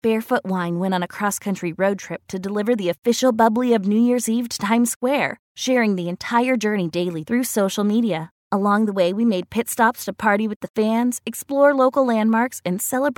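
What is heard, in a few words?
American English, female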